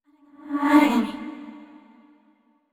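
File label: neutral, voice; yamiko aragami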